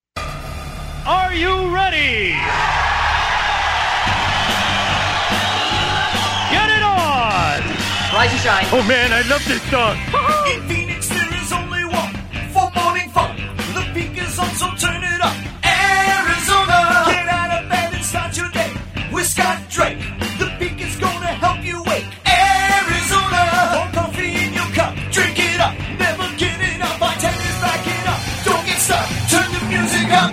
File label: Radio Imaging